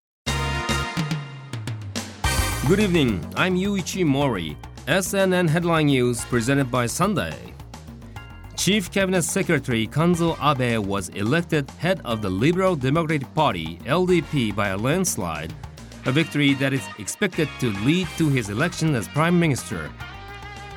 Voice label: news